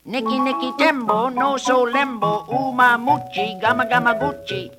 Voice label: nikki nikkie gimbo oh so limbo gamma gamma goochie, gibbrish